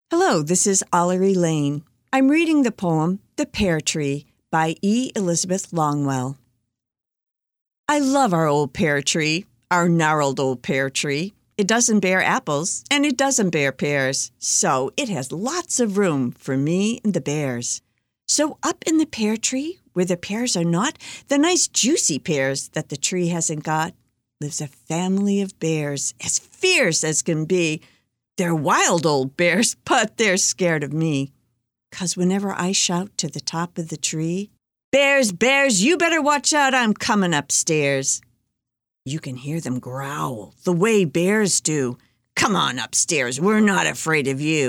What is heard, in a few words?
AUDIOBOOK; PEAR TREE